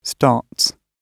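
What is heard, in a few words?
"starts", British English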